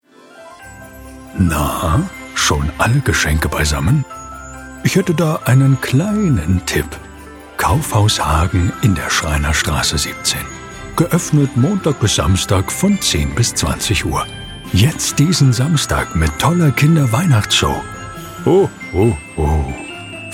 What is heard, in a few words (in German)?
Hoerprobe Werbung Weihnachtsmann